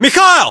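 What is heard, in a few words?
"mikhail", battlechatter, Russian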